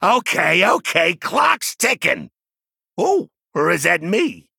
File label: Male Goblin; Dr Boom